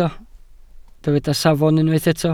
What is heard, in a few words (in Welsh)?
"safon", Welsh